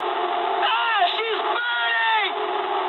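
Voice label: English on fire mood med; War Thunder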